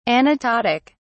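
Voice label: "anaptotic", British English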